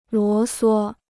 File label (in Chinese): "啰唆", Chinese